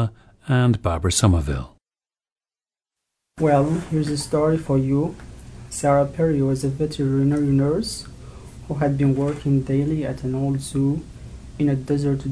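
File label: mauritania, International Dialects of English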